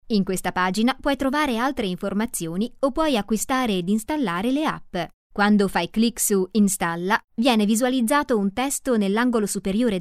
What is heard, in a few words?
e-learning italian